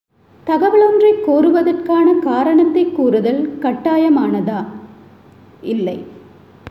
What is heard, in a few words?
Tamil